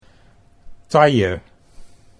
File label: "taille", Dutch